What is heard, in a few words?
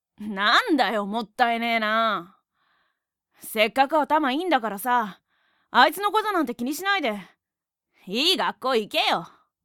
boy